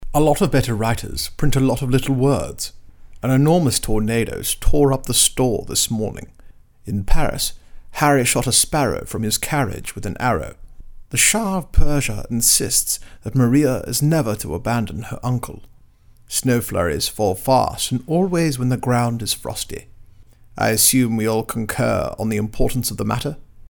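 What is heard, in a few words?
british accent sentences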